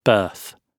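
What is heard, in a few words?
"birth", British English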